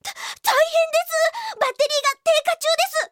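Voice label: Japanese anime girl voice sounds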